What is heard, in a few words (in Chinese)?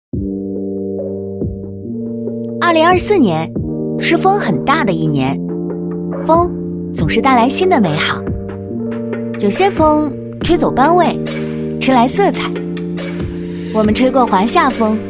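女 青春时尚感素人讲述感, 国语配音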